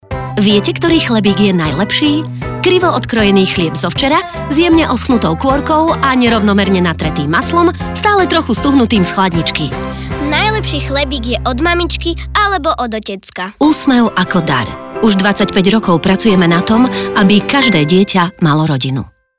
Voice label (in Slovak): radio